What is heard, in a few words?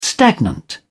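"stagnant", British English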